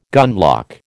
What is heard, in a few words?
"gunlock", American